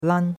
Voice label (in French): "lan1", chinois; Cours-de-Chinois